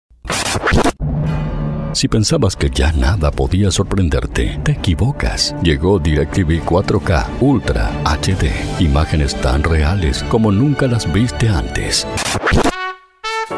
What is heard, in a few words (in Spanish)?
Graves Medios Neutros, voces masculinas